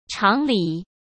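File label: "常理", Mandarin Chinese; hsk; chinese-flashcards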